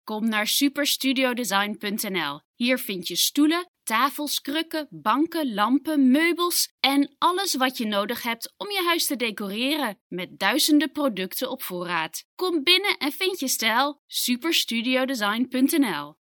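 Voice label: Dutch, female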